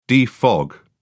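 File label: "defog", British English, dictionary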